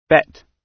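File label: "bet", British English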